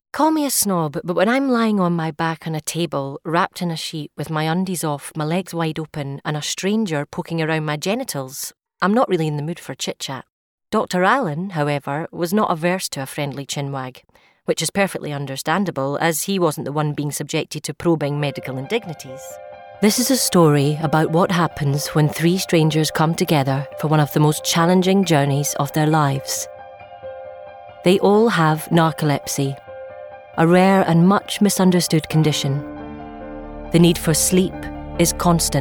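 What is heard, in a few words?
UK English Narrative; GoLocalise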